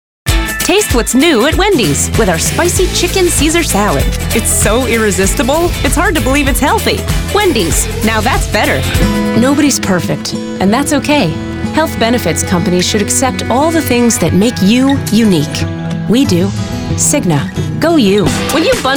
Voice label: Commercials